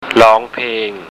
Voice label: "long peng", Thai

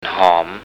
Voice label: "hoom", Thai

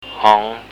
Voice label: "hoong", Thai

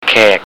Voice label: "kaek", Thai

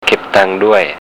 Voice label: "kep tang duai", Thai

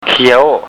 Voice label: "kiau", Thai

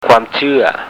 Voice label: "kwaam chuea", Thai

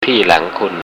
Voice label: "tii lang kun", Thai

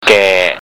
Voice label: "gae", Thai

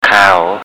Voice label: "kaho" weiss, Thai

